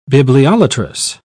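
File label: "bibliolatrous", British English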